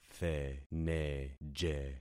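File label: "theneje", concatenated syllables; Affixing experiment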